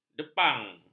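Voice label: "depang", Malay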